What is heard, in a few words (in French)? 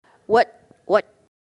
Grenouille - Vietnamien